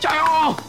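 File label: "jia you", Chinese; Chat wheel 2017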